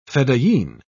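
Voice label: "fedayeen", British English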